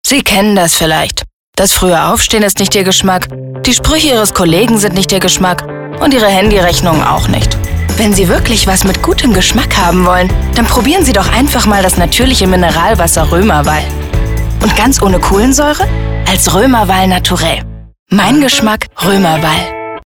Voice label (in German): Mineralwasser Werbung; Roemer-Mineralwasser